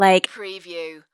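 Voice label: Woman Says Like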